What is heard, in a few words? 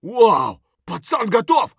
friendly down; Escape from Tarkov; scav5